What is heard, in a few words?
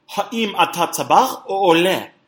native, hebrew